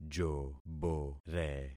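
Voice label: "jobore", concatenated syllables; Affixing experiment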